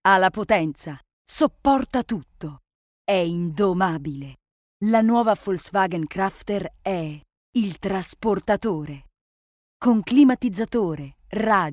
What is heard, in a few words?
female italian voice over sales